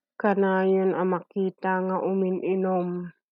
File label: Ilocano; usually found drinking; kanayon a makita nga umin-inom